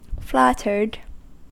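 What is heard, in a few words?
"flattered", US English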